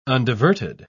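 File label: "undiverted", British English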